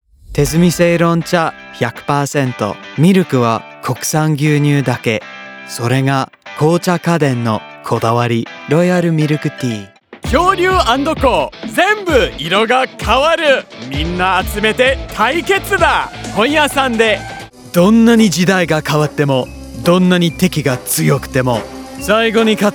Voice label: Japanese